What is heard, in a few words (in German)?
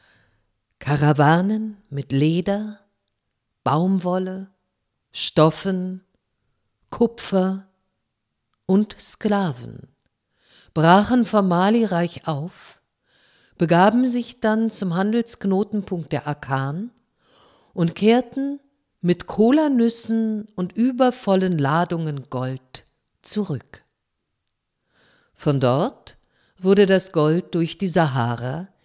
Dokumentation film, sprecherin